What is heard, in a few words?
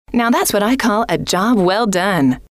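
now that's what I call a job well done, female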